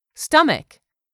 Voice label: "STOMACH", english; 3000 words

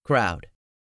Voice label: "crowd", English; 3000 words

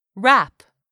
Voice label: "wrap", English; 3000 words

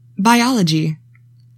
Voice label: "BIOLOGY", english; 3000 words

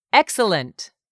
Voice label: "excellent", English; 3000 words

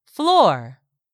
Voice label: "FLOOR", English; 3000 words

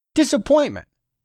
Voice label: "DISAPPOINTMENT", English; 3000 words

